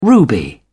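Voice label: "ruby", English, British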